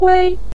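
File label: "kui", Mandarin Chinese, neutral tone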